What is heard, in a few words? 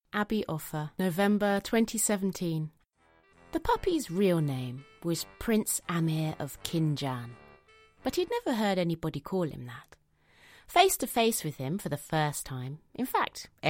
Audiobook